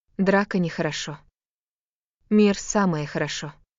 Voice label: Russian, russian phrases insults; ロシア語のネガティブなフレーズ

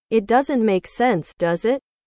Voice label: english

